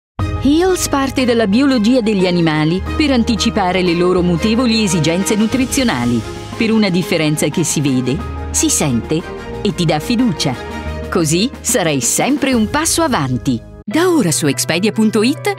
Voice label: Italian Commercials